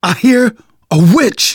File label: heard witch, manager, survivor, voice; Left 4 Dead 2; left4dead2 dlc2